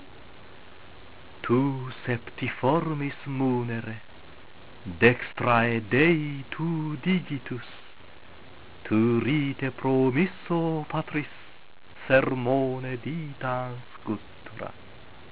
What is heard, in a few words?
Veni recitatio, latin